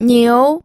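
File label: "niu", Mandarin Chinese, second tone; pinyin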